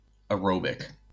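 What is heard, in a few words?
"aerobic", American English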